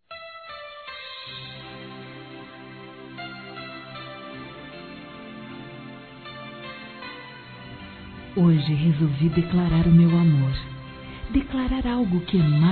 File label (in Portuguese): Declaração Romântica Feminino; Telemensagens; Declaração de amor; Telemensagens 2017